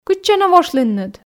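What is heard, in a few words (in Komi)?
кытчӧ нӧ вошлінныд, Komi, female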